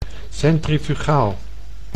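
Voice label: "centrifugaal", Dutch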